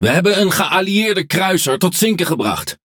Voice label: NL Speech Destroy Ally Cruiser; World Of Warships